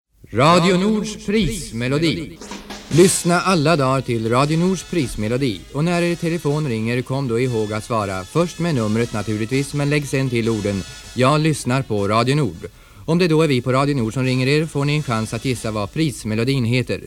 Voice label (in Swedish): spot; radio nord 1962